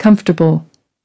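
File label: "comfortable", English word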